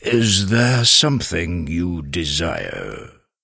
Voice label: Tuskarr Male Vendor; Warrior

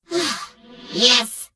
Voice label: Gnome Female Greeting; Death Knight

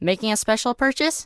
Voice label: Gnome Male Young NPC Vendor

